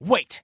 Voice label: Respond Wait, Soldier Male; Soldier Male 01